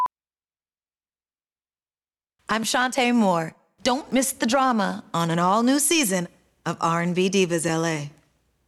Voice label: Drama Dialog; SuiteSpot; RnB Divas LA S2